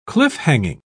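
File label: "cliff-hanging", British English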